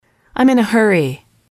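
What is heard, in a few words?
"I'm in a hurry", English, female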